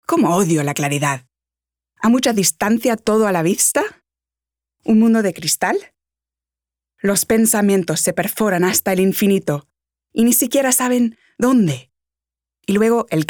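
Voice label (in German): Spanish, Schauspiel; Claridad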